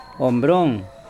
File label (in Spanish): Nawat palabras grande cosa; pipil